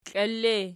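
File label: "kiili" ergative, Archi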